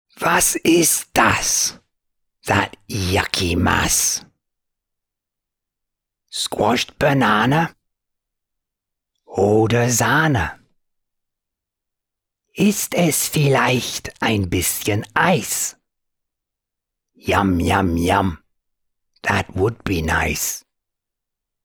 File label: German